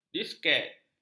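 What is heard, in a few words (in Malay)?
"disket", Malay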